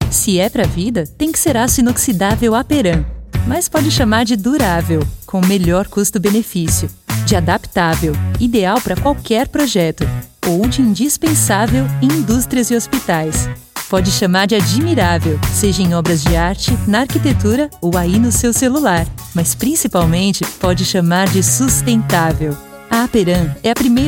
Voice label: portuguese brazilian others